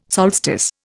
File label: "solstice", Indian